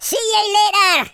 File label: kamikaze, Scotsman; speech